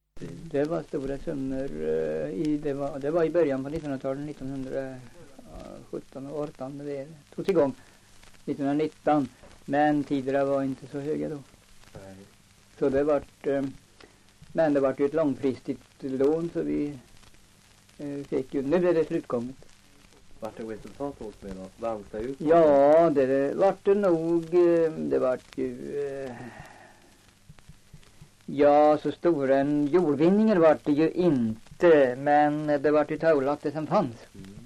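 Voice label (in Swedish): Östra Tollstad Östergötland, dialekter; Folkminnen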